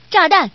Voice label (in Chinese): "zhadan", woman; guizhou